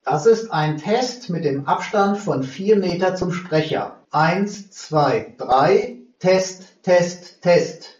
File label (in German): Abstand 4m Sprecher, kabelloses Konferenz Mikrofon; kabelloses Konferenz Mikrofon mit Lautsprecher und Echo Unterdrückung, inkl. Hintergrund Geräuschfilter; 4 Meter Abstand zum Sprecher